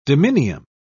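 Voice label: "dominium", British English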